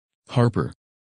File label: "Harper", women's names; Английские имена: женские